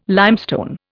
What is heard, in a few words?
"limestone", Indian